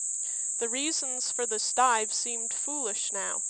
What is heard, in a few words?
noise speech